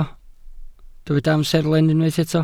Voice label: "amserlen", Welsh